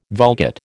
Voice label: "Vulgate", American